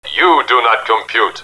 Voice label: you do not compute, robot; robot b9

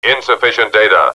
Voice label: insufficient data, robot; robot b9

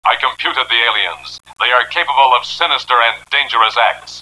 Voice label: sinister, robot; robot b9

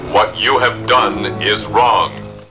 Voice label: What You Done Wrong, robot; robot b9

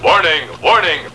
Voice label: warning, robot; robot b9

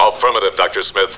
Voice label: Affirm, robot; Dr Smith; robot b9

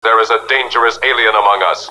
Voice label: dangerous alien, robot; robot b9

